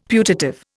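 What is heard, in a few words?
"putative", Indian English; Dictionary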